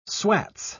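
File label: "swats", British English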